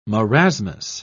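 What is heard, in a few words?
"marasmus", English, British English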